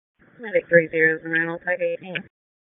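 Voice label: Public Safety Tactical, p25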